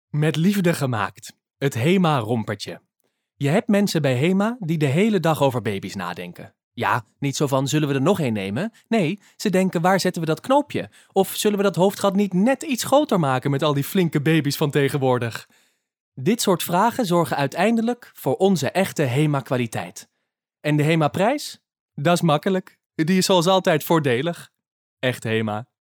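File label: Dutch, male; dutch voice over talent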